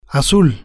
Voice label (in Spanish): "azul", spanish